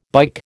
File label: "bike", American